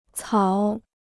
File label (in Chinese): "草", Chinese